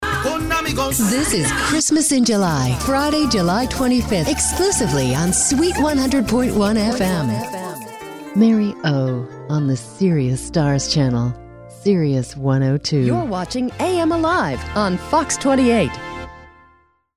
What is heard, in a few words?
imaging, female voice